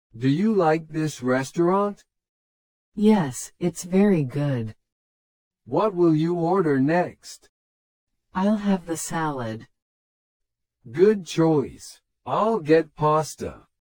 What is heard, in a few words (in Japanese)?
トーク スロー